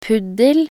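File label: "puddel", Norwegian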